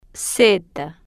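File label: "z", spanish, female, slow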